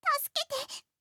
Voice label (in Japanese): 助けて…, ロリ; ハイグレボイス集